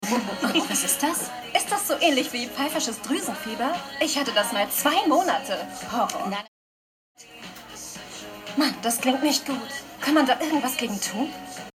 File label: Synchron TV; Rose